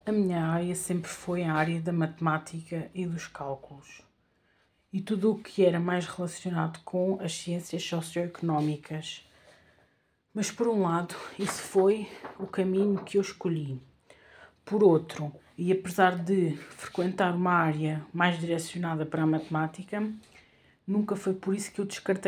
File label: whatsapp audio